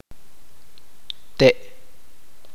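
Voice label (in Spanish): "árbol", chuj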